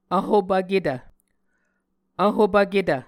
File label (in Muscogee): "vhopvketv", Muscogee